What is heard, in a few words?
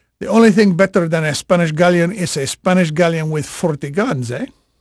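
Spanish male, voice; Pirates Of The Caribbean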